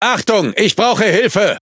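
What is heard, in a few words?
Speech Quick Commands Sos, German; World Of Warships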